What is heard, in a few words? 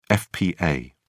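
"fpa", English, British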